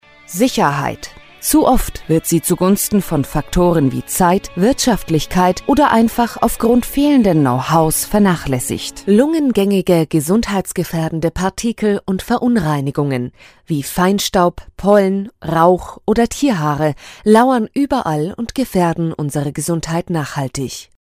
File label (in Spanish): Femenino; Sonoarte